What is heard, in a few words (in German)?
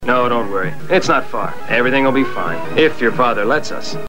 Hörprobe des englischen Synchronschauspielers; Lex Barker